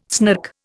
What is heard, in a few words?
"snark", Indian